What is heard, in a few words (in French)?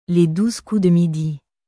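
Les douze coups de midi, French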